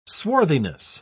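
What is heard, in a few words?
"swarthiness", British English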